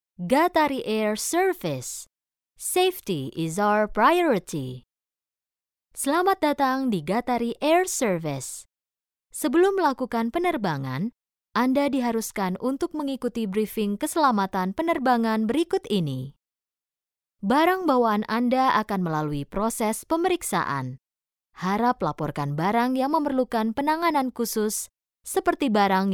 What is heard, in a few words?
indonesian corporate